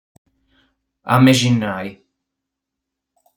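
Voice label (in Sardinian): "Amexinai", Sardinian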